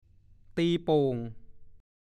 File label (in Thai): "ตีโป่ง", ภาษาโคราช